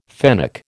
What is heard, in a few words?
"fennec", American